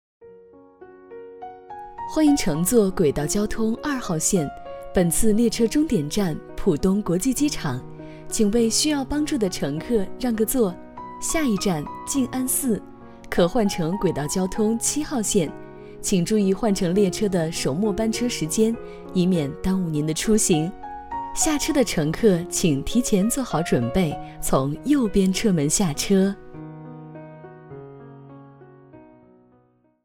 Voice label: 广播稿类 地铁广播报站

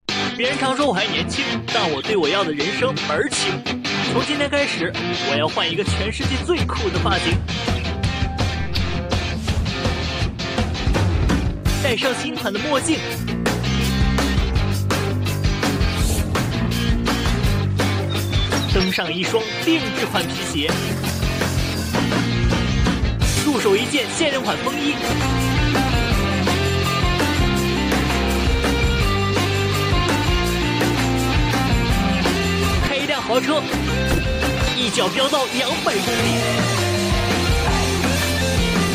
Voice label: 朝气蓬勃 的 声音

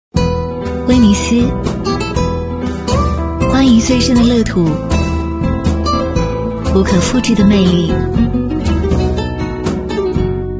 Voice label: 旁白; 威尼斯

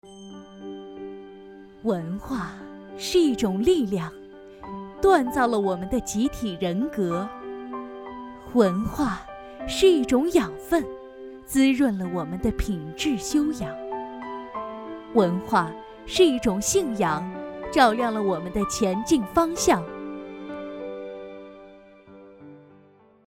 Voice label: 沉稳大气

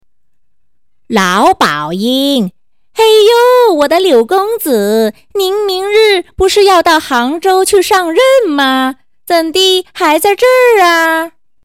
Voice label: 老鸨音

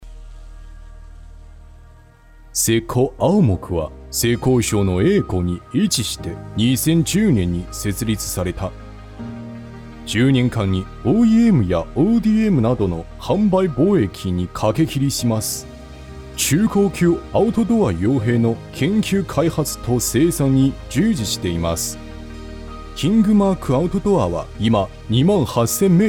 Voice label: 日语

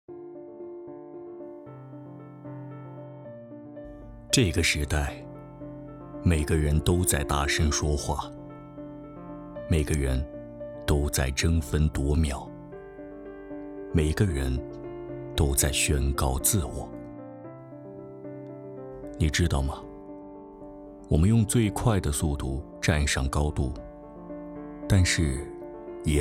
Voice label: 汽车沉稳讲述; 别克君越

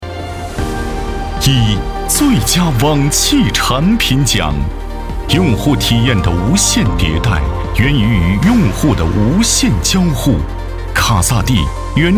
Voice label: 男颁奖

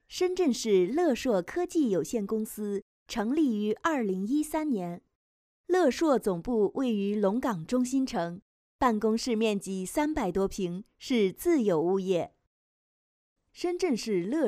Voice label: 公司介绍女声

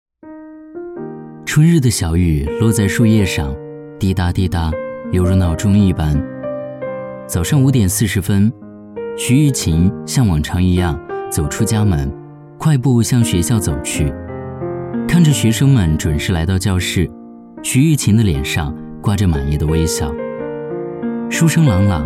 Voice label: 人物宣传温柔

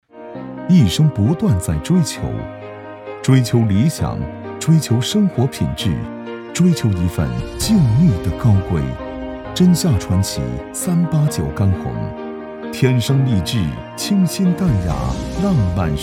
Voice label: 大气广告葡萄酒

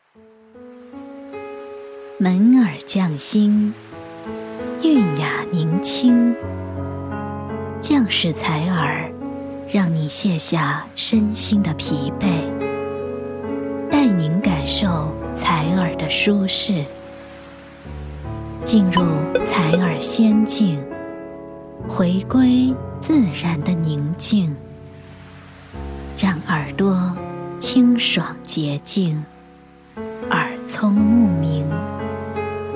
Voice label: 冥想 解说

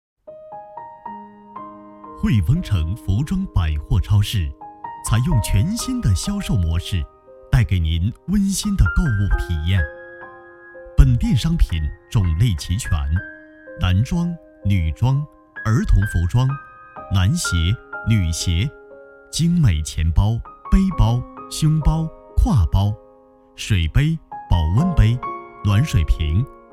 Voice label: 广告超市亲切感

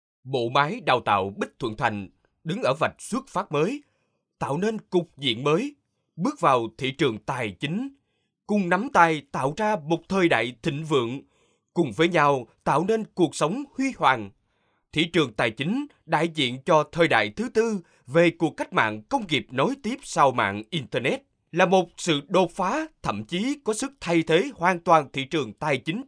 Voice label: 年轻活力

